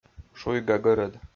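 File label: "шуйга гӧрӧд", Komi, male; kpv audio dict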